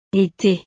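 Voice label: "ete", French; los meses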